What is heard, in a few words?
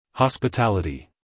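"hospitality", American English, male; everyone-can-use-english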